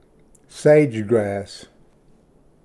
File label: "ᎧᏁᏍᎩ", Pronunciation; sage-grass